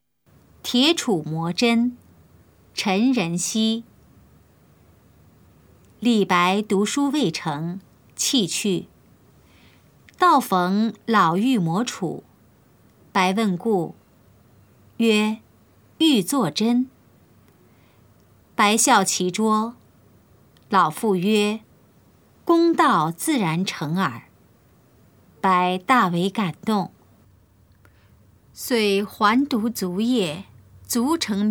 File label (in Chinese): putonghua; 鐵杵磨針; 普通話